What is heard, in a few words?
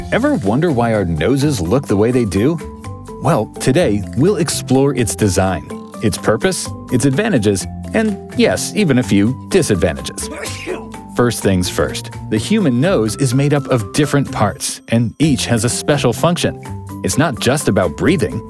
Documentary Narration Noses